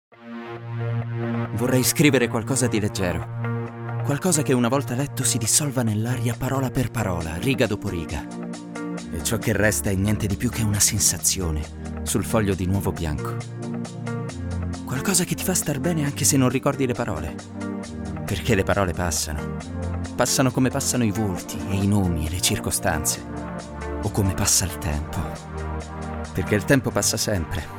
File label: positive motivating peaceful